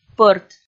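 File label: "пӧрт", Komi, female; kpv audio dict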